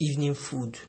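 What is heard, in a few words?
"eveningfood", Nigerian Pidgin, male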